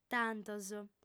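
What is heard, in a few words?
"tantos", lingua sarda, female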